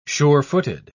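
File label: "sure-footed", British English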